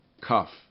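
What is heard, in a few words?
"cuff", English; RhymeTest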